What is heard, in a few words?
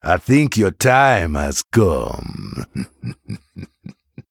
Male Troll Intro; Bwonsamdi